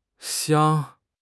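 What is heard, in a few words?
"xiang1", Chinese; HSK4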